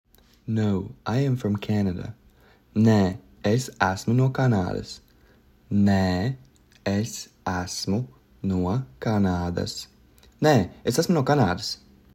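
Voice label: No I am from Canada, Latvian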